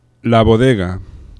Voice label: "la bodega", aproximants; laboratori fonetica

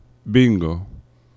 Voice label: "bingo", nasals; laboratori fonetica

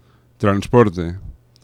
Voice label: "transporte", nasals; laboratori fonetica

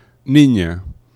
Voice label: "nina", nasals; laboratori fonetica

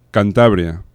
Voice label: "cantabria", vibrants; laboratori fonetica

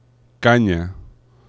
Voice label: "cana", nasals; laboratori fonetica

